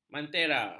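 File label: "mantera", Malay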